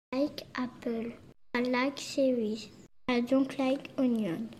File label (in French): en anglais